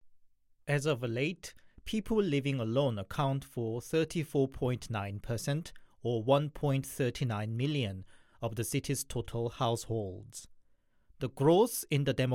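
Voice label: Korean, English accent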